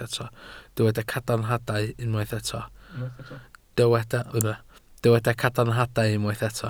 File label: "cadarnhadau", Welsh